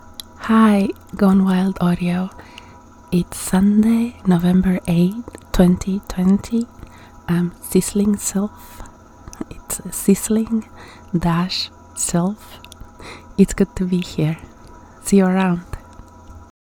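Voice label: Female Verification Request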